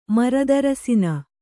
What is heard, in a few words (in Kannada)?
"ಮರದರಸಿನ", Kannada